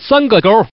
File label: Man, tuple